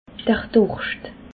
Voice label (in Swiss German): "durscht", alsace